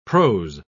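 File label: "pros", British English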